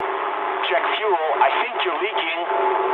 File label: eng tank damaged mood med, speech eng